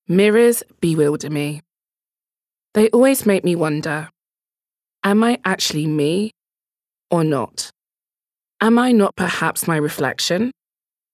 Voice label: Innerer Monolog, Commercial (Werbung), English UK; LOFT VOICES